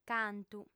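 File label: "cantu", female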